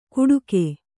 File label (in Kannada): "ಕೂಡುಗೆ", Kannada